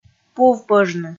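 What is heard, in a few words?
"пув пӧжны", Komi, female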